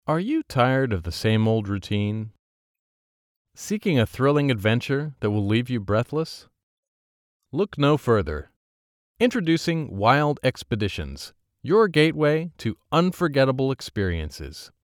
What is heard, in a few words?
foreigner